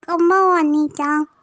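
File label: "konbanwa", Japanese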